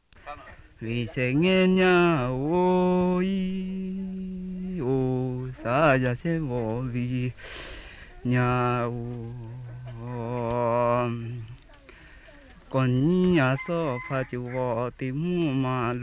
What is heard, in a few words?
Lahu